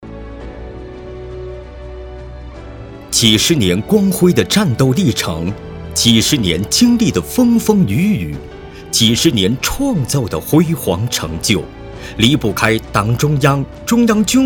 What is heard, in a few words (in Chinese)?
专题, 国语男声配音, 年轻浑厚; 强军梦